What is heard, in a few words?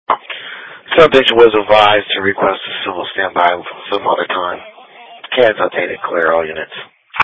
Police Patrol 1 (Dispatch)